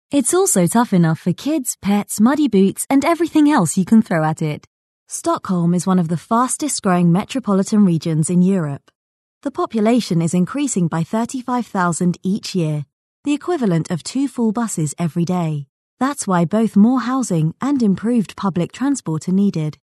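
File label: English UK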